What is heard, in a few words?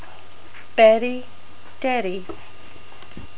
"baddy", NZ English